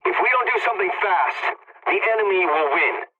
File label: pilot tickets lead continue enemy, english; War Thunder